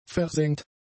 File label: "versengt", German; adjectives